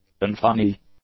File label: "unfunny", english; adjectives